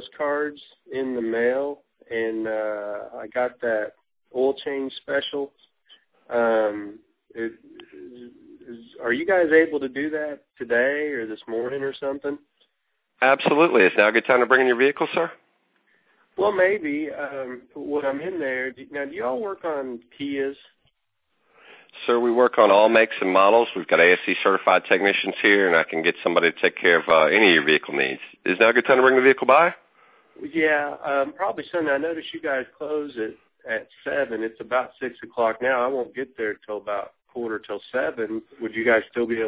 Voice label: Training Call script